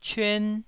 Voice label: "cyuan1", Taiwanese